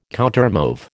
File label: "countermove", American